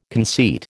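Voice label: "conceit", American English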